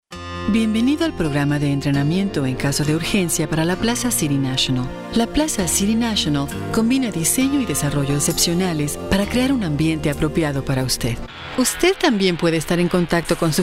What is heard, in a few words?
Spanish